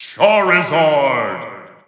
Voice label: English Announcer; Charizard; SSB4